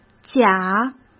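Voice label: "假", Mandarin Chinese; hsk; chinese-flashcards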